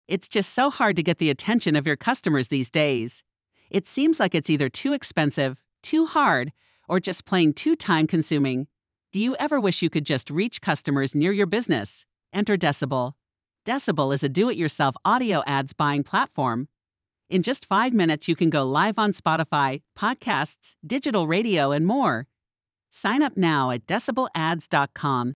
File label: Jasmine ad voice